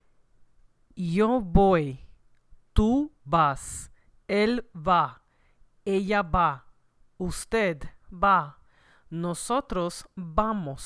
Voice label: ir verb in Spanish; future conjugations